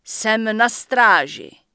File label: Night Elf Female Standard NPC Greeting; wowpreklad; czwow